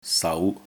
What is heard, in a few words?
"sau2", Cantonese